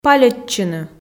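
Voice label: "пальӧдчыны", Komi, female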